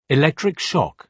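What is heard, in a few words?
"electric shock", British English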